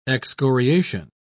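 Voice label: "excoriation", British English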